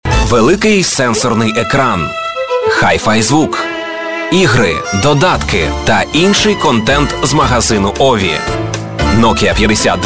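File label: ukrainian male commercial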